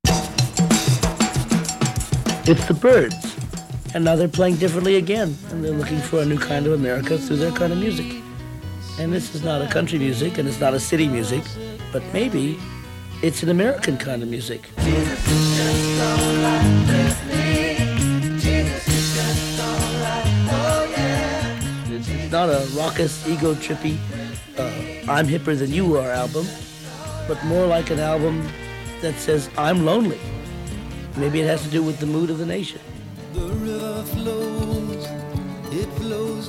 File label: Radio Commercials; 1969